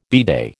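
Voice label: "bidet", American English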